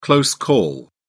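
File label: "close call", British English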